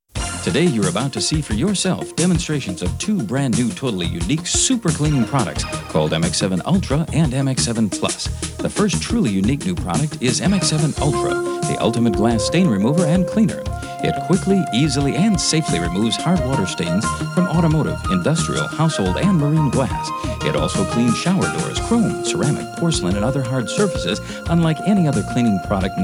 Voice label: TV Infomercial Voiceover; MX7 Ultra MX7 Plus